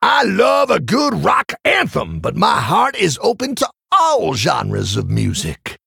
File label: Male Tauren Idle